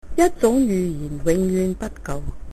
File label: one language, cantonese